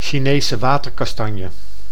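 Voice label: "Chinese waterkastanje", Dutch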